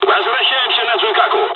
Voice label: dialogs russian; War Thunder

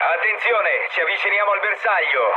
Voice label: near waypoint mood low, speech it; War Thunder

